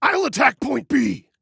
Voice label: ATTACK, Male